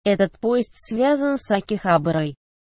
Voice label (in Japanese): この電車 秋葉原まで行きますか？ロシア語